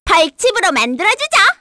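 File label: Vox Skill, Korean; Hanus; kingsraid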